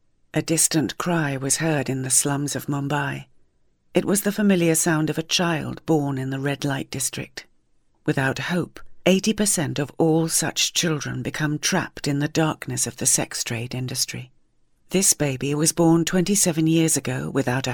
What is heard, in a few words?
dry studio recording